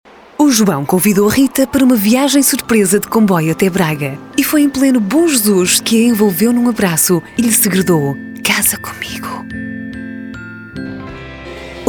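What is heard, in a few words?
portuguese female commercial; voice demos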